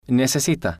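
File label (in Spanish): "necesita", Spanish, male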